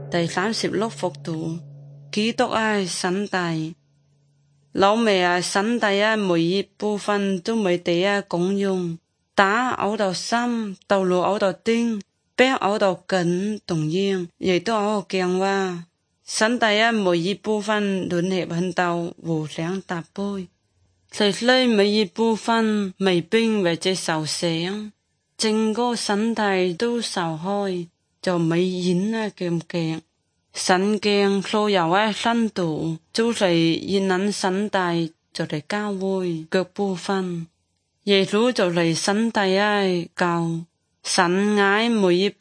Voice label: good news zhuang guigang guiping